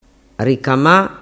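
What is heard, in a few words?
"ricama", Sicilian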